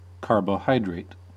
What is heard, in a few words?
"carbohydrate", us